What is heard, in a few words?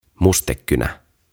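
"Mustekynä" Finnish; OTAVA KONSERNI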